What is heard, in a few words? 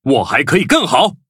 level up, zh-hant